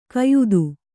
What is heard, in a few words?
"ಕೆಯ್ದು", kannada